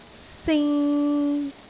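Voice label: "sing3", Cantonese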